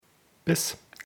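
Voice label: "bis ort", German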